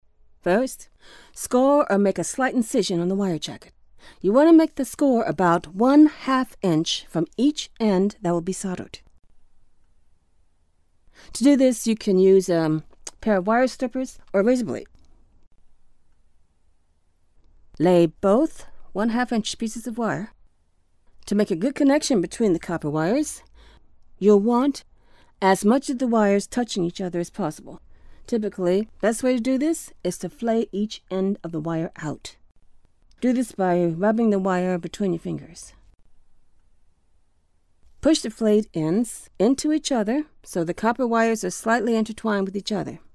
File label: E-learning Explanatory DIY